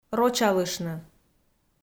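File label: "рочалыштны", Komi, female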